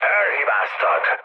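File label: Hungarian bomb failure mood high, speech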